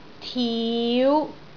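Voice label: "tiu5", Cantonese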